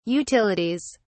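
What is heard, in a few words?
"utilities", British English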